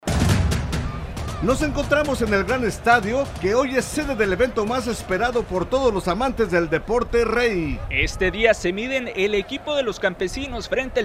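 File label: psa, Spanish; partido de futbol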